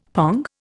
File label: "pong", Indian; Dictionary